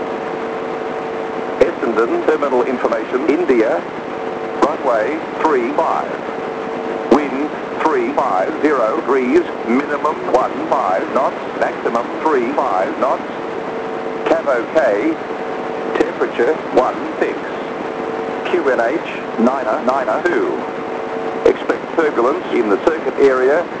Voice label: Essendon ATIS